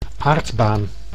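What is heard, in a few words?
"aardbaan", Dutch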